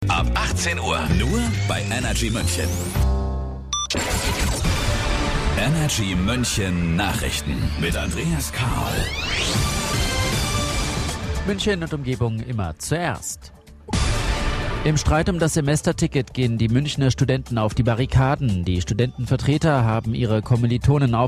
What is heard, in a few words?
radio news; radio energy